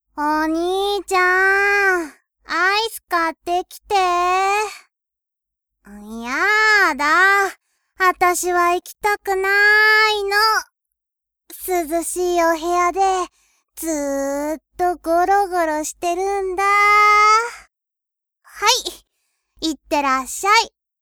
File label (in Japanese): 少女（だらける妹）